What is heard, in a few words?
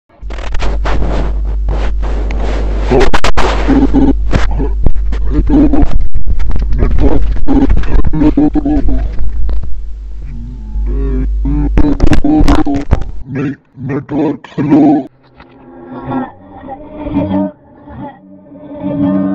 conversation